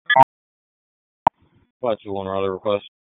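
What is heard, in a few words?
Raleigh Police Southeast District Dispatch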